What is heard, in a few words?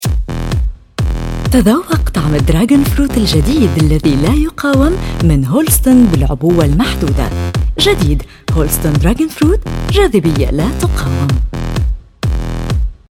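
Arabic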